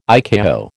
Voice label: "ICAO", American